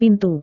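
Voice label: "pintu", Indonesian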